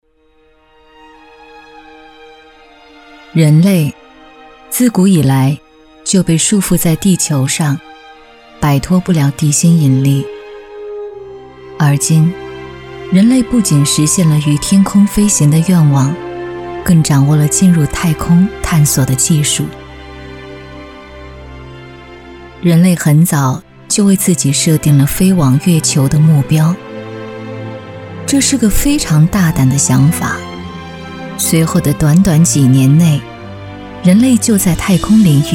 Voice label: 女 纪录片; 初探-月球

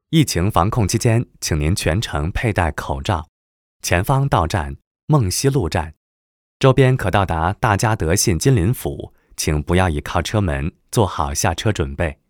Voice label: 男 中文 广播地铁 报站; 二号线梦溪路站

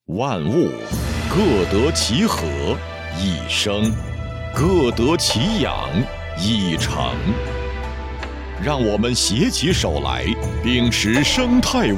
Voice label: 男 广告 生态文明

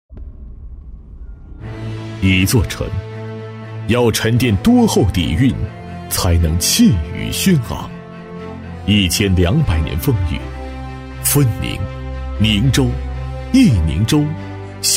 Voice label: 男 专题 政府 城市宣传; 宁州古城

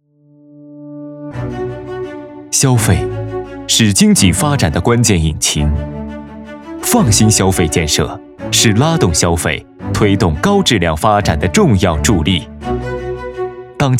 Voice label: 男 宣传片 高级介绍

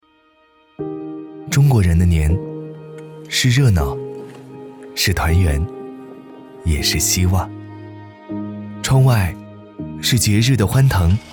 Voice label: 男, 走心温暖; 窗见中国年

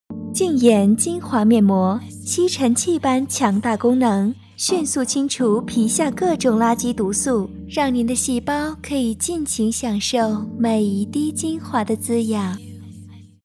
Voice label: 女 广告 温柔

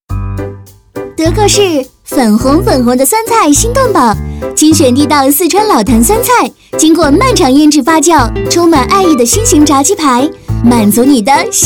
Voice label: 女 飞碟; 德克士

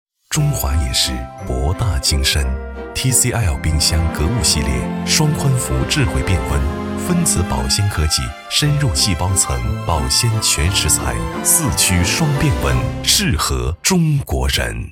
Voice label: 男, TVC; TCL

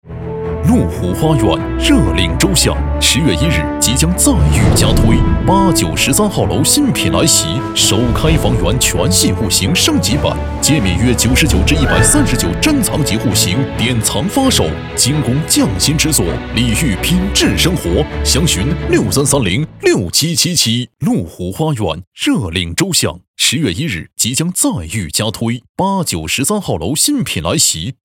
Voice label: 男 地产广告 宣传语; 92.1辽宁都市广播; 鹿湖花苑

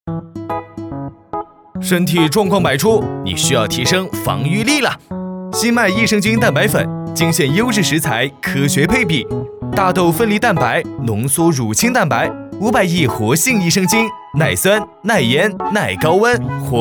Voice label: 男, 轻松 MG; 身体状况百出

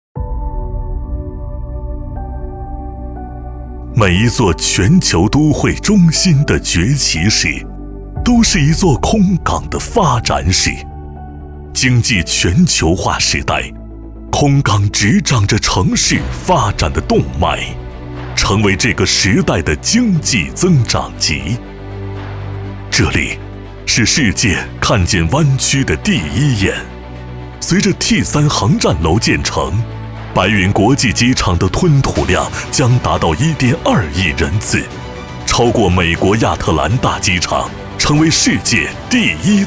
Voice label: 男 地产 磁性浑厚; 龙湖云山; 房产宣传片案例

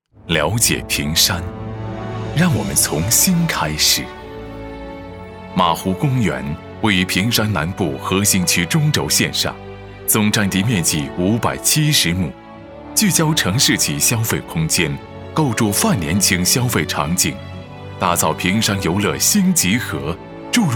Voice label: 男 专题; 马湖公园

